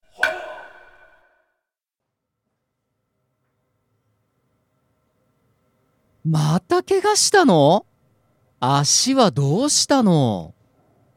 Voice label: dialog; JapanesePod101; Beginner Season 1